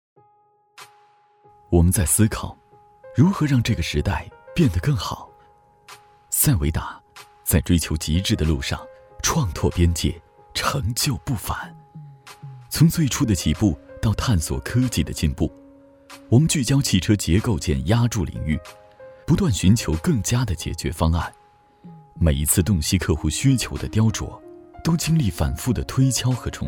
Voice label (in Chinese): 男声 品牌专题—科技商务 大气浑厚磁性 沉稳, 国语